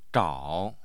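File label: "zhao3", Mandarin Chinese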